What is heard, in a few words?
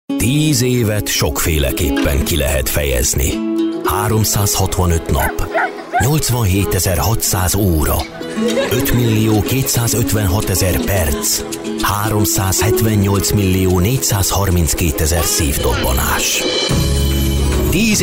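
Hungarian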